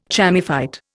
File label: "chamaephyte", Indian